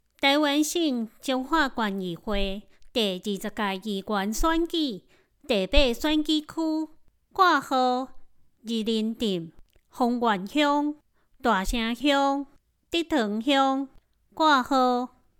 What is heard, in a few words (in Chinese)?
臺語; 彰化縣; 有聲公報; 縣議員; 第八選舉區